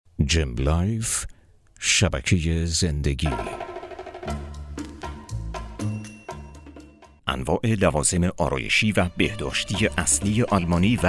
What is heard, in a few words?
farsi male; dictor